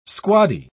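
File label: "squaddy", English, British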